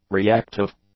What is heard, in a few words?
"reactive", English; adjectives